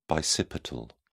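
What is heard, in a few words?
"bicipital", British English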